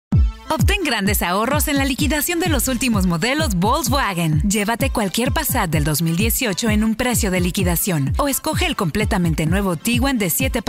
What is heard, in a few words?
spanish latin american automotive